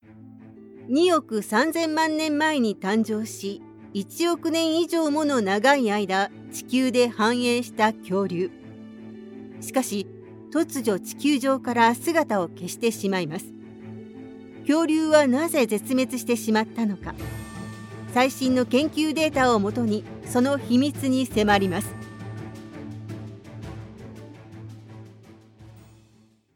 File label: japanese documentaries